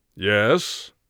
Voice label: yes, mage voice; Mage Voice Pack